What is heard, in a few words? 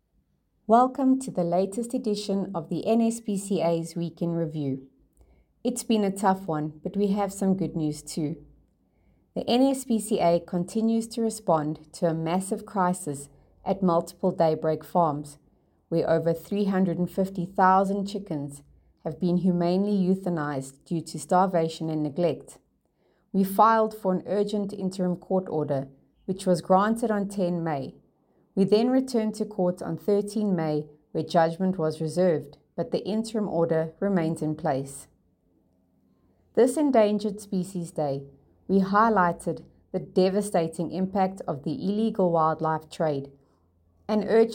voice note; NSPCA; Week in Review: 12-18 May 2025; This week, we focus on the crisis that has unfolded at several Daybreak farms, where chickens have gone unfed for days.